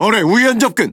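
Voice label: Korean Speech Alarms Torpedo Right; World Of Warships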